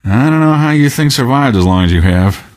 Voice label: question, male; Garry's Mod; hl2